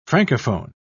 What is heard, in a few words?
"francophone", pronounce